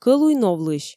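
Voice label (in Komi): "кӧлуй новлысь", Komi, female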